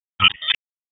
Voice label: Police Spec Ops Tac 7, Raleigh; wake